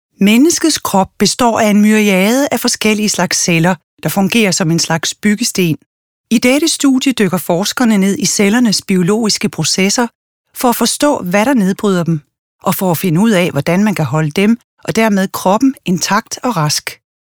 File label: CORPORATE